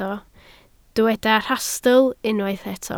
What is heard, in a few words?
"rhastl", Welsh